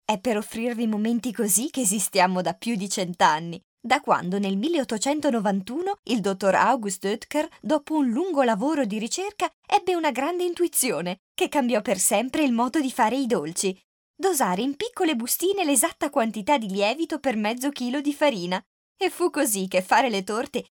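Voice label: Italian female voice over, upbeat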